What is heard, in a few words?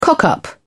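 "cock up", British English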